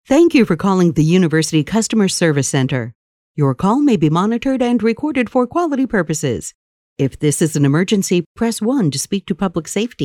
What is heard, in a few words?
us english ivr